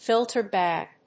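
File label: "filter bag", English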